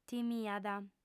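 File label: "timiat", lingua sarda, female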